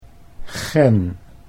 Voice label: "gen", Dutch